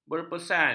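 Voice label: "berpesan", Malay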